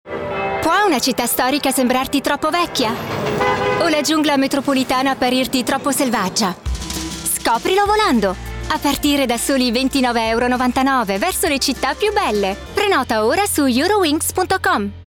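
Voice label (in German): Italian, advert, Dynamisch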